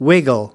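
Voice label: "wiggle", US English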